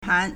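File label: "pan2", Mandarin Chinese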